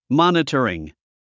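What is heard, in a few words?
"monitoring", English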